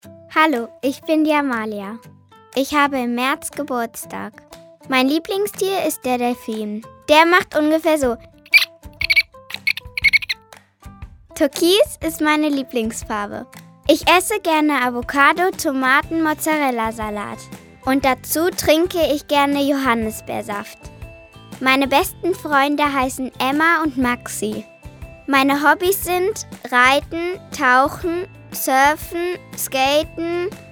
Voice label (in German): Selbstvorstellung DE